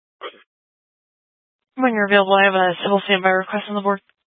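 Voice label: Sheriff Dispatch, Scanner audio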